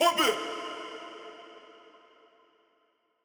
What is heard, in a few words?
"Pump It", EDM Future Vocals; Big EDM; Future House Tools